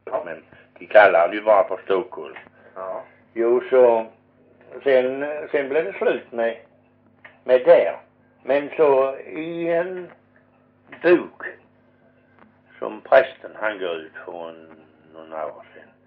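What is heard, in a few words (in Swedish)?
Perstorp Skåne, dialekter, svenska sagor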